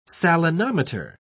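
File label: "salinometer", British English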